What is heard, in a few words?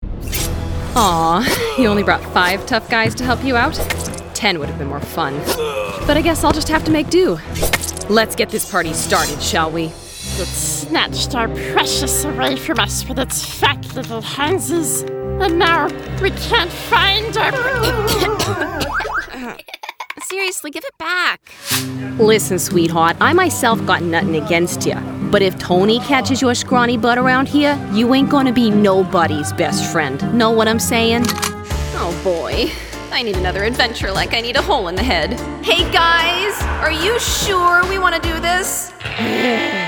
Character